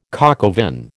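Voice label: "coq au vin", American English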